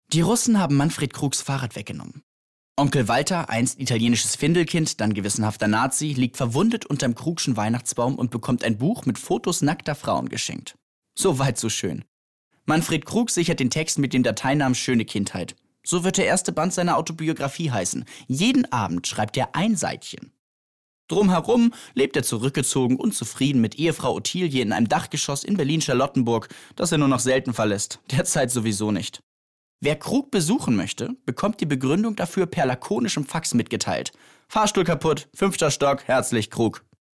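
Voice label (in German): Erzählung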